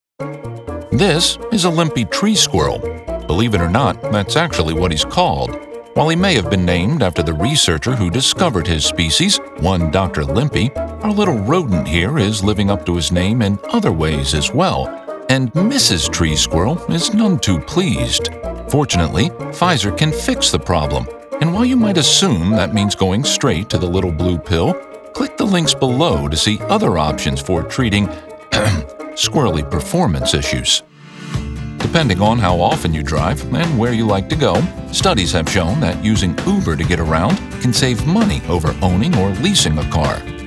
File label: Explainers